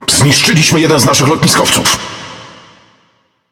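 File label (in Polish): Speech Destroy Ally Aircarrier, Polish; World Of Warships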